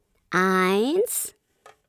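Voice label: "one", German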